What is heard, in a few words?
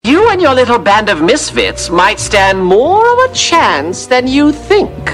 announcer; hazbin hotel alastor announcer pack for qol lock